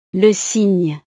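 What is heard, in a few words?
"le cygne", French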